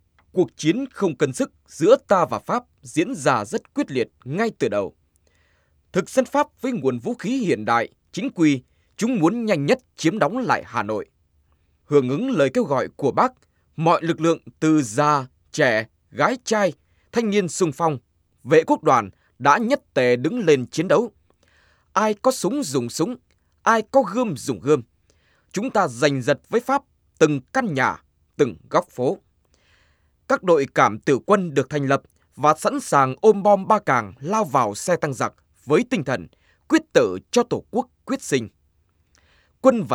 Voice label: vietnamese